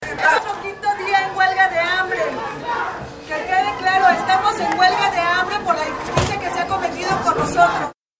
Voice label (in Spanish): mujer; presas del penal de santiaguito; 5to Dia De Huelga De Hambre